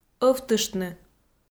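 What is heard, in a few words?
"ӧвтыштны", Komi, female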